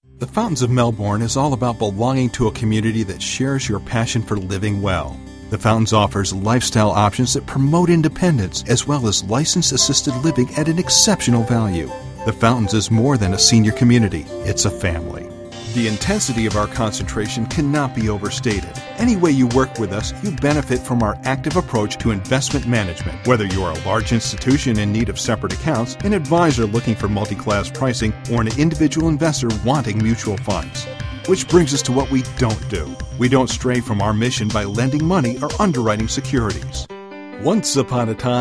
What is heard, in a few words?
narration